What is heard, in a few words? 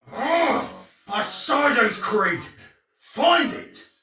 Sight Lost Shout, Soldier Male; Dialog; Barks; Battle; Sinecure